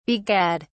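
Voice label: "begad", en, uk British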